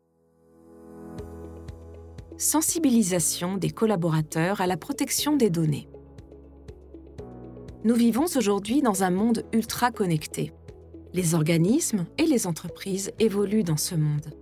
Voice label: female French e-learning; Devine Voices